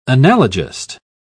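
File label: "analogist", British English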